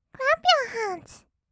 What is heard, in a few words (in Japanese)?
"クラップユアハンズ", kawaii voice; ASMR; 1200 kawaii voice!!; 音ゲー